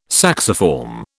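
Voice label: "sacciform", British English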